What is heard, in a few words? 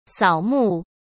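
"扫墓", Mandarin Chinese; hsk; chinese-flashcards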